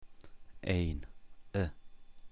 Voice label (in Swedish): "ein", persiska